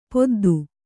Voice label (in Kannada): "ಪೆದ್ದಿ", Kannada